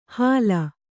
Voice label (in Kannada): "ಹಾಲ", Kannada